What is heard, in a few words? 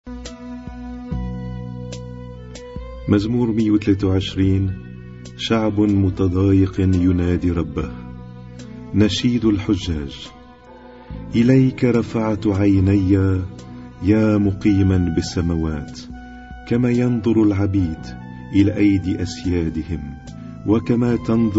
Arabic Psalm Zaboor